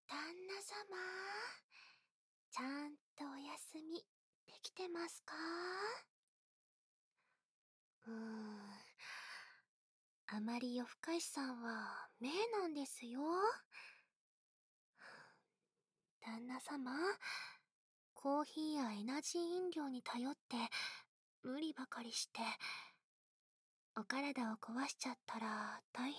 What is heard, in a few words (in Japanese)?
癒しの添い寝（左耳用）; ナースゆい; 癒し系専属ナースのゆいちゃんと菜々子ママに甘々&バブみで包まれちゃうバイノーラル